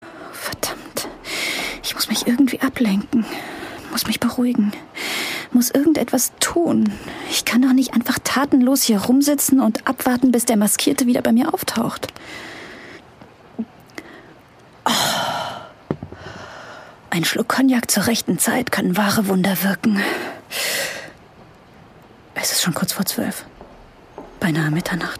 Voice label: hörspiel

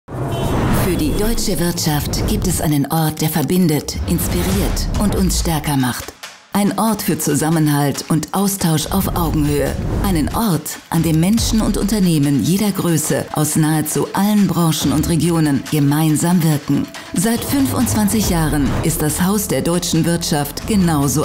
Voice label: Imagefilm; DIHK

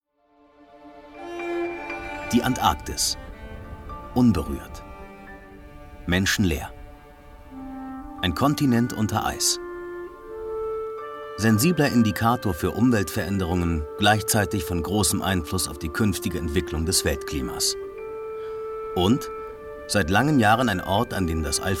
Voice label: kommentar

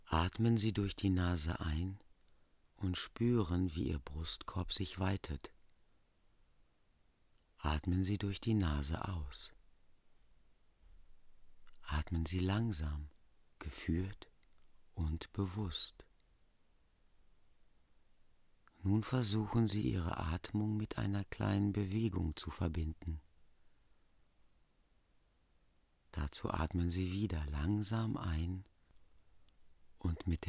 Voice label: Enstpannung Meditation Einschlafen; LOFT VOICES

